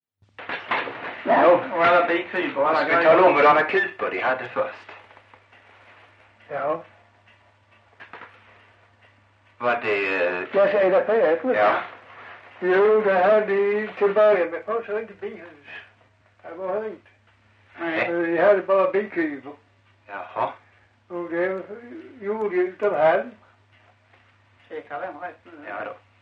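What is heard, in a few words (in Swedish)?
Farstorp Skåne, dialekter; Folkminnen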